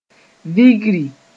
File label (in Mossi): "vigri", moore